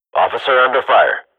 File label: radio bot under fire, swat; agents